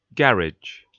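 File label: "garage", British English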